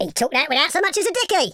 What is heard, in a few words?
"perfect", London Cabbie; speech